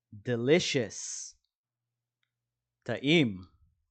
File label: "delicious" – "טעים"